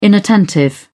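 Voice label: "inattentive", British English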